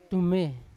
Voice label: "tume" stars, Yawa